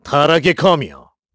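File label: Vox Skill, Korean; KaselB; kingsraid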